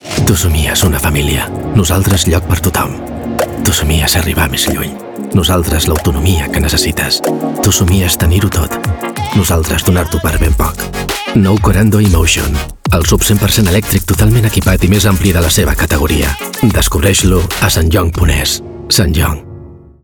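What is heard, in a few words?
RADIO, Catalan; SSANGYONG KORANDO EMOTION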